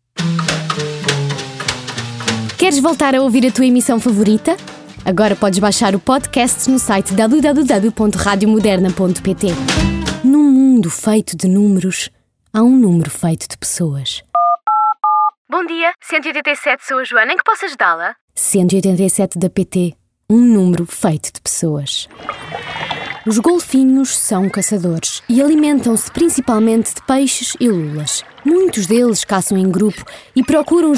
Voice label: Portuguese